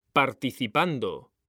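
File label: "participando", Spanish, male; mekanta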